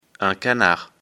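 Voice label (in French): "canard", French; afrikalan; lecture fr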